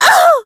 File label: pain; Dizzy